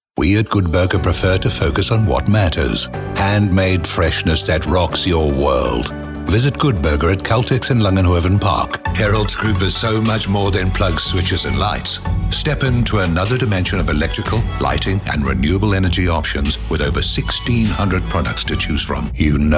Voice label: english south african commercial; Inglés (sul-africano); África do Sul; dublador profissional